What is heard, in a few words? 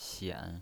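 "xian", pinyin voice, third tone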